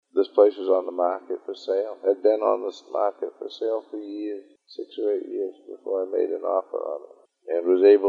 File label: Maine male monologue